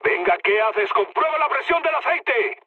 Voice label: damaged oil, mood high, speech; War Thunder

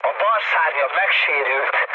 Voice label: left wing, speech hun; War Thunder

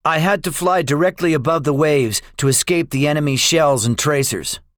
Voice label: debriefing, english; War Thunder

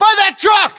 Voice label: US landmark near truck, battlechatter, english

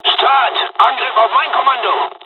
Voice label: japanese; first wave; War Thunder

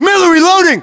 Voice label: US inform reloading, battlechatter, english

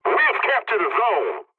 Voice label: pilot capture zone ally, english; War Thunder

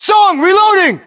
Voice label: US inform reloading, battlechatter, english

